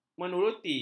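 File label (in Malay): "menuruti", Malay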